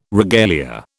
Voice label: "regalia", British

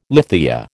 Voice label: "lithia", American

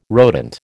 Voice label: "rodent", American

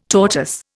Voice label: "tortoise", Indian

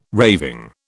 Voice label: "raving", British

